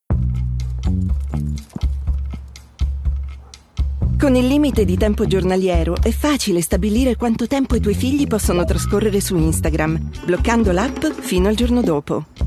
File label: female Italian commercial; instagram